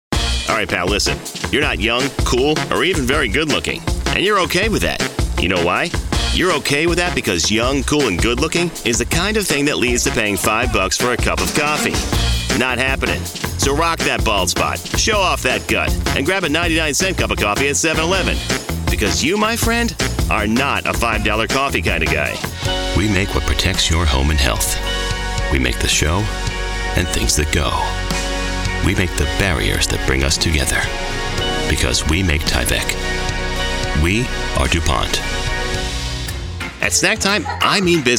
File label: Commercial Male English